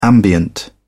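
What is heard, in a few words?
"ambient", English, British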